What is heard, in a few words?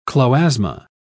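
"chloasma", English, British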